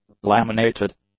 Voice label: "laminated", english; adjectives